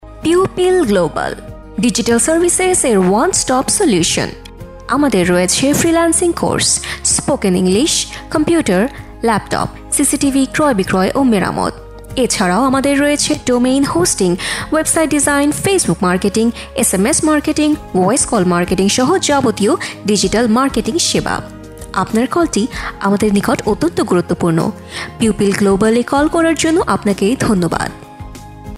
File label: caller tune voice with background; pupil global